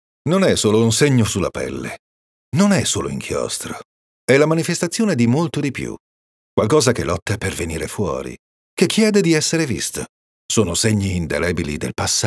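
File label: Italian, male, narration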